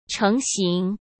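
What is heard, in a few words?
"成型", Mandarin Chinese; hsk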